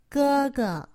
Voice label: "gege", Chinese; Survival Chinese

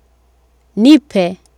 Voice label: "nipe", Swahili

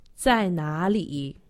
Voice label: "zainali", Chinese; Survival Chinese

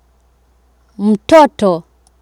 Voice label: "mtoto", Swahili

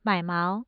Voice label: mai3 mao2, Mandarin tone

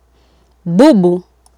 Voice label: "bubu", swahili

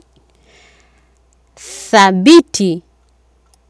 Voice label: "thabiti", Swahili

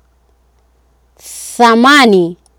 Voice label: "thamani", Swahili

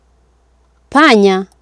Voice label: "panya", Swahili

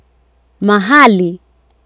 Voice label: "mahali", Swahili

